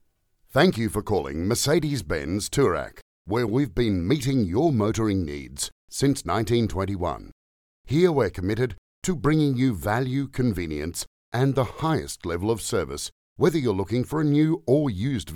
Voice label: english australian automotive